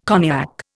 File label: "cognac", Indian